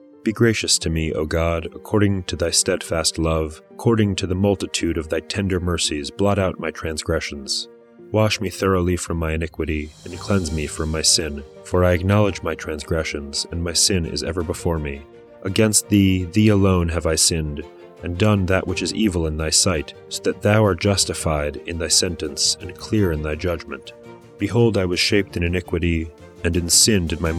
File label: poetry and prayer psalm english